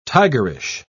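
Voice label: "tigerish", British English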